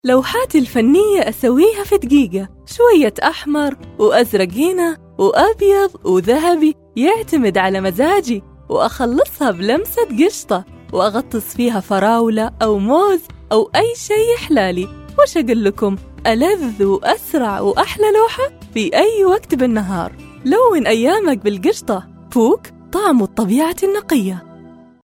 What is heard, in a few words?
Gulf saudi arabia riyadh female